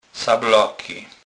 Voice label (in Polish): "sablaky", kurpie